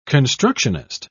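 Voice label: "constructionist", English, British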